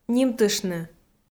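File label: "нимтыштны", Komi-Zyrian, female; kpv audio dict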